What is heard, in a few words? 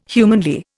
"humanly", Indian